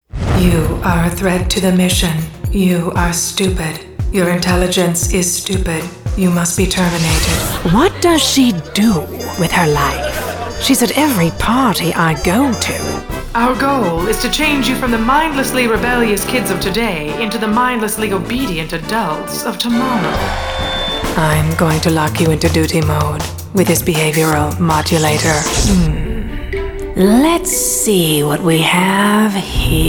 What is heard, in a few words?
animation